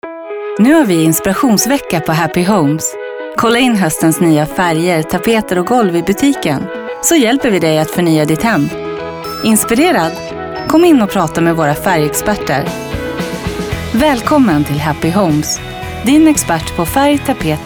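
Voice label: Swedish commercial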